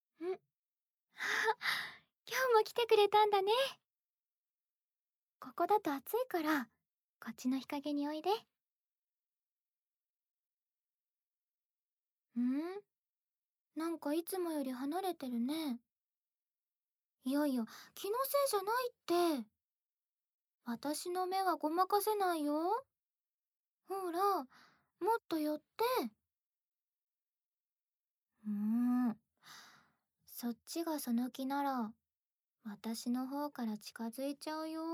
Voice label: 縁側でまったり耳かき SEなし

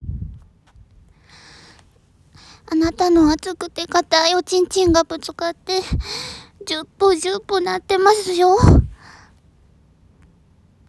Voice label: セリフ, ASMR

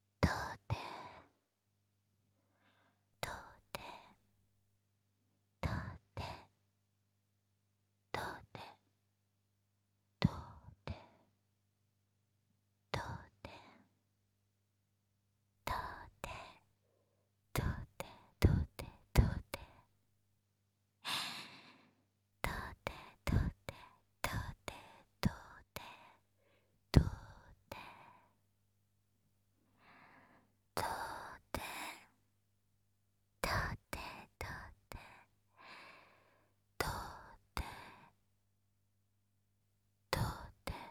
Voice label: 囁き淫語連呼; SEなし

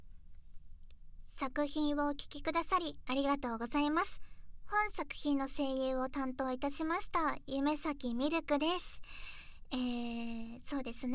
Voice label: フリートーク音声

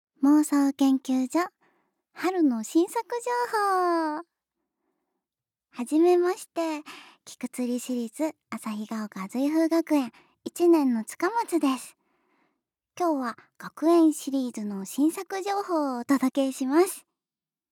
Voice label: 新作告知ボイス

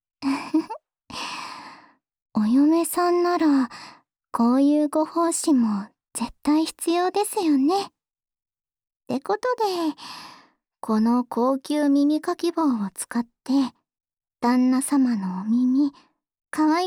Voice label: 安眠用高級耳かき 右, ASMR

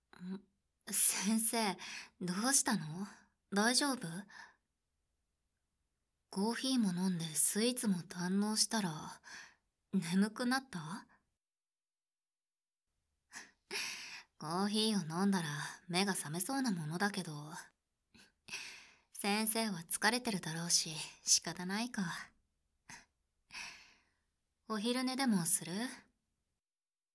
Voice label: 効果音無し 耳かき; 「先生？ 耳かきとか……他の生徒に頼んだらダメだよ？」

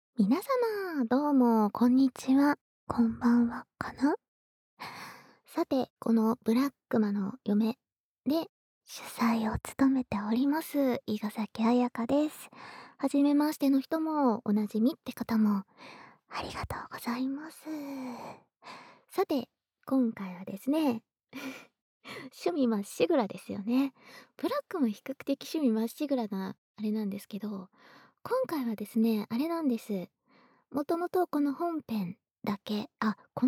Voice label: フリートーク; フリートーク

